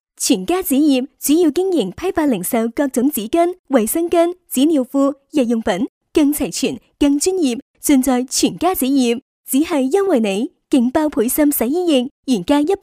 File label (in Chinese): 粤 女 促销; 全家纸业